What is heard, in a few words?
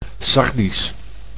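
"Sardisch", Dutch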